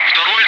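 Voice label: Russian bright; Wingman; DCS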